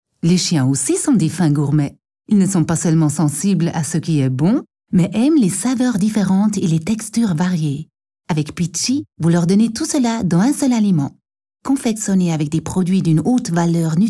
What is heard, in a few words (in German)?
Spot; Pitchy 2008